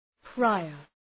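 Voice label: "prior", English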